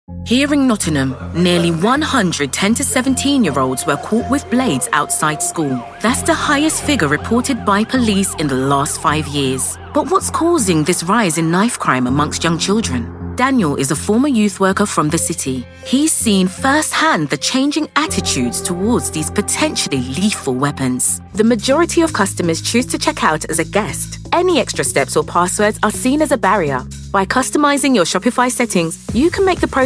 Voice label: narration

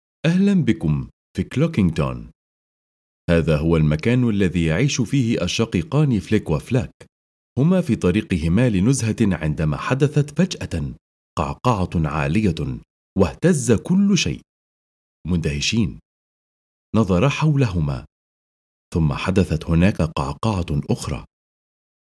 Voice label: Arabic voice audiobook

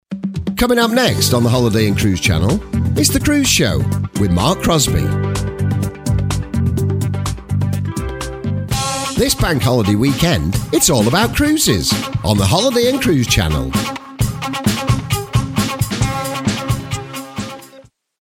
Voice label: continuity radio, voiceover

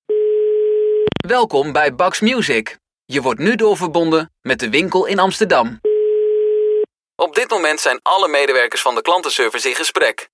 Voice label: dutch voiceover telephone

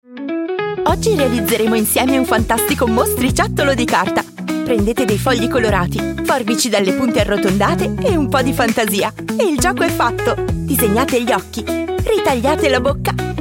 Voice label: Italian natural

